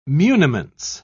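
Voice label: "muniments", British English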